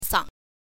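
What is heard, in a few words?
"sang", Mandarin Chinese, neutral tone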